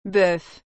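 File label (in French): "bœuf", French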